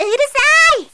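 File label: "urusai", Japanese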